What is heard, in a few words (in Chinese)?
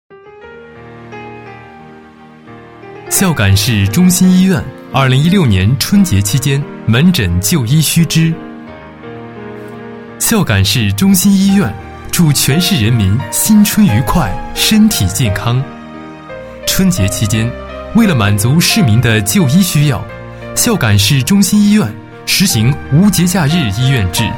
男 通知; 医院春节期间门诊就医须知